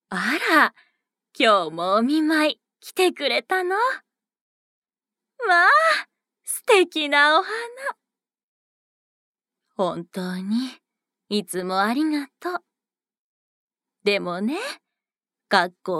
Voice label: 優しいおばあちゃん

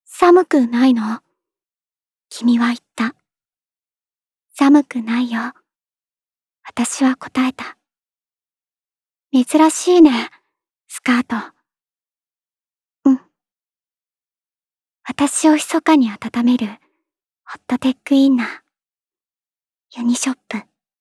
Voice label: ナレーション素朴

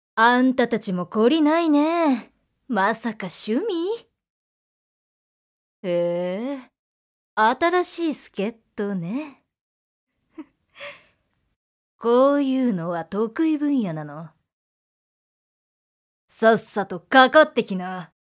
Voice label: クール姉さん; 女性

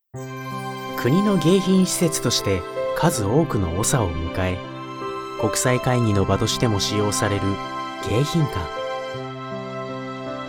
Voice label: ナレーション

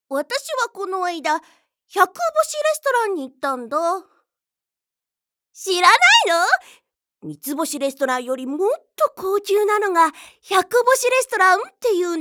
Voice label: 嫌味な少女

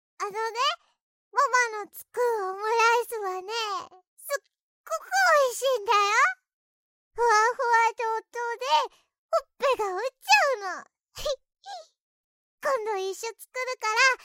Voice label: 女の子

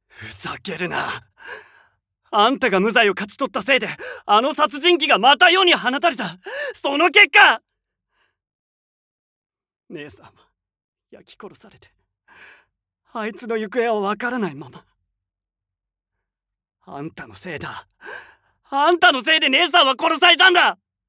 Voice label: 青年 被害者

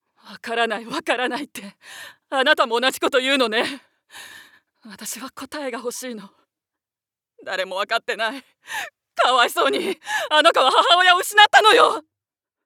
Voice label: 外画

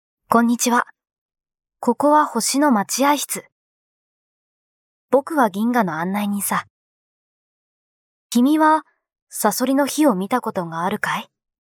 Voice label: 少年台詞

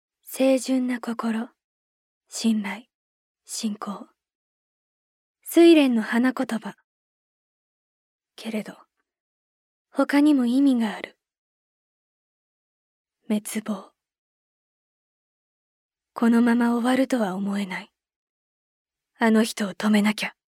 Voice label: 無口キャラ

